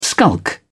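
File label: "skulk", British English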